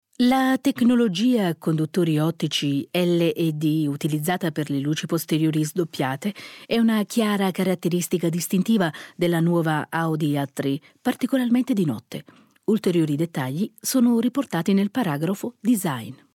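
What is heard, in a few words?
it, Italian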